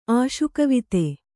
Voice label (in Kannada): "ಆಶುಕವಿತೆ", Kannada; āśukavite; noun; a poetry composed impromptu.